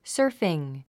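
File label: "surfing", English word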